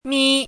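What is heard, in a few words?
"mī", Chinese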